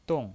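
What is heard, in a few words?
"dong" Mandarin Chinese, fourth tone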